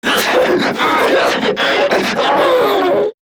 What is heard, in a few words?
Death Grineer Manic; Battle Barks